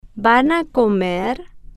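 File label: van a comer, Spanish, slow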